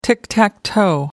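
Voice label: "tick-tack-toe", British English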